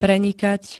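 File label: "prenikať", Slovak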